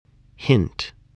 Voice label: "hint", English, spelling